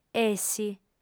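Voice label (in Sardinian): "essi", female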